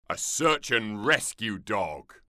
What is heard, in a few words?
working dog quiz rescue dog yes, en; werkhonden